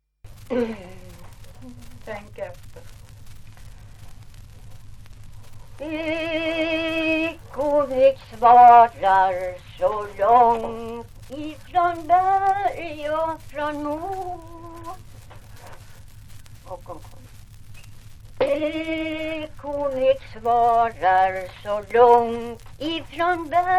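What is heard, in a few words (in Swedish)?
Ovansjö Gästrikland, Svenska sagor, dialekter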